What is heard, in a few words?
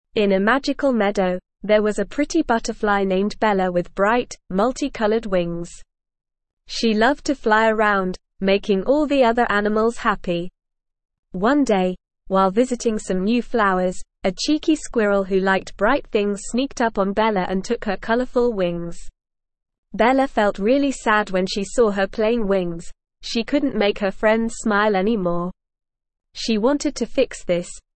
ESL Short Stories for Kids Upper Intermediate NORMAL Reading; The Butterfly Who Lost Her Colors